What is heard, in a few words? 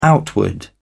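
"outward", English, British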